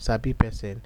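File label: "sabi person", Nigerian Pidgin, male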